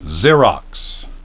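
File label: "xerox", English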